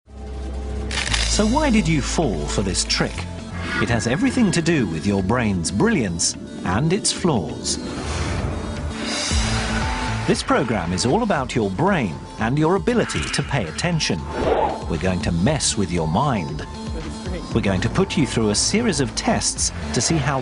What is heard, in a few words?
Narration